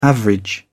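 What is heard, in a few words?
"average", English, British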